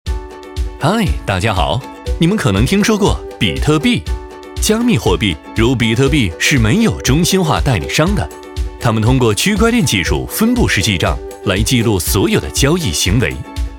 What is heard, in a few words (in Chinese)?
男, 货币知识, MG风格